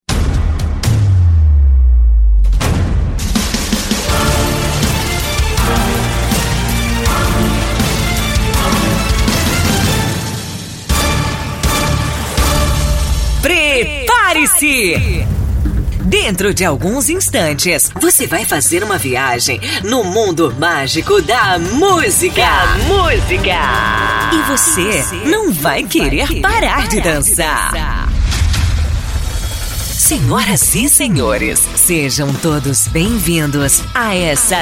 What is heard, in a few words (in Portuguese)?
ABERTURA DJ LOCUTORA